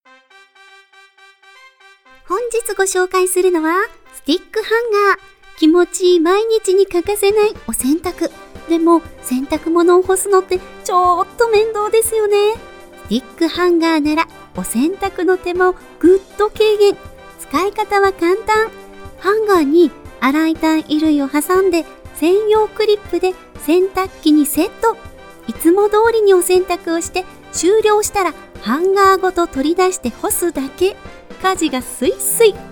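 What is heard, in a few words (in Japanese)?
ボイスサンプル（テレビショッピング風）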